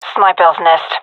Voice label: vo agents gendarmerie fem

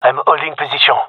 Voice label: waiting here, gendarmerie male; vo

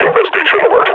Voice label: announce enemy zombie, combine grunt

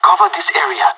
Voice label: radio bot hold, pirate; vo; Counter Strike Model Pack; CS:GO

